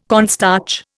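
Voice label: "cornstarch", Indian English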